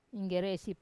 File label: "inggeresi", Wamesa; cockroach